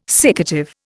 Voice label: "siccative", Indian